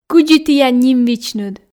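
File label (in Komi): кыдзи тіян ним-вичныд, Komi, female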